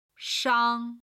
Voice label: "shang1", Chinese